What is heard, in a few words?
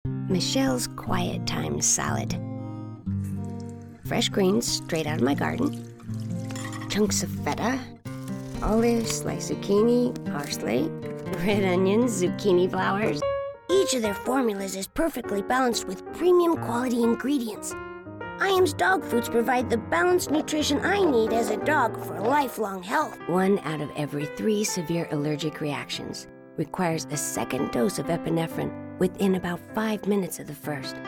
commercial